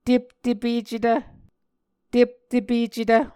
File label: "teptepecetv", Muscogee